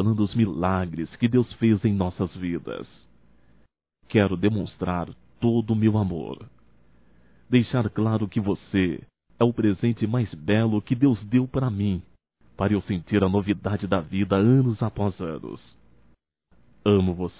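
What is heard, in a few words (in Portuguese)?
NATAL ROMANTICO (Masculino); Natal e ano novo; Telemensagens 2017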